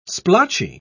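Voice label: "splotchy", British English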